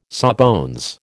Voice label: "sawbones", American